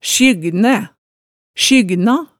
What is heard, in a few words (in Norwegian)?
"sjygnæ", hemsedalsmaalet